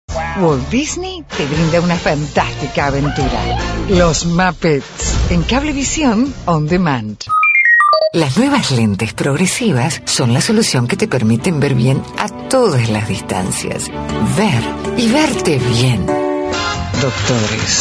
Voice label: spanish latin american commercial; Spanisch (Lateinamerika); Spanien